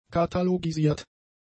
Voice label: "katalogisiert", German; adjectives

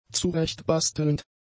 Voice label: "zurechtbastelnd", German; adjectives

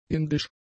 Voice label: "indisch", German; adjectives

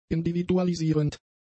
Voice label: "individualisierend", German; adjectives

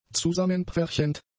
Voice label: "zusammenpferchend", German; adjectives

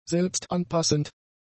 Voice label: "selbstanpassend", German; adjectives

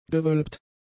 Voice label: "gewölbt", german; adjectives

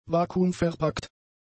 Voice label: "vakuumverpackt", German; adjectives

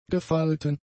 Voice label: "gefalten", German; adjectives

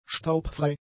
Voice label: "staubfrei", German; adjectives

